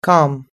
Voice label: "calm", us-english